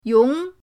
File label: "yong2", pinyin